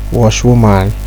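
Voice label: "wash woman", Nigerian Pidgin, male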